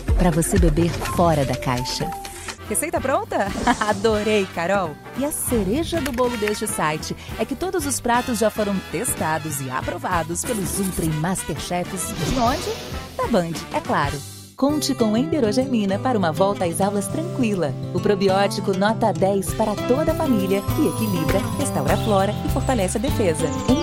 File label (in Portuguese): Loc Natural Simpática, Locutora